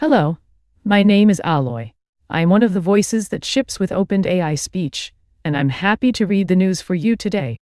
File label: news, speech; alloy; dtp-newsroom